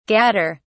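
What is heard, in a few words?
"gadder", British English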